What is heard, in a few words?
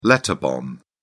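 "letter bomb", British English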